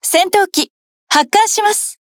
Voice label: Speech CatFight Launch; Hood; wows